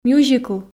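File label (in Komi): "мюзикл", Komi, female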